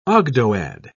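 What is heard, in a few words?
"ogdoad", British English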